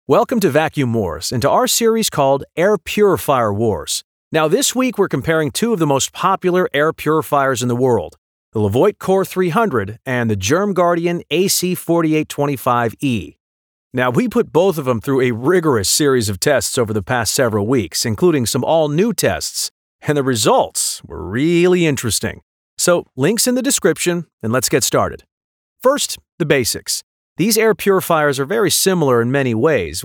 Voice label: YouTube Style Narration